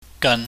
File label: "gan", chinese